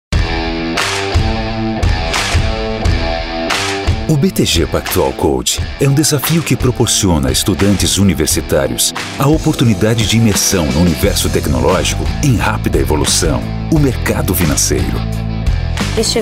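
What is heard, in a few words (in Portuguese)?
Português - Brasileiro, Voz Padrão - Grave, Masculino; BTG PACTUAL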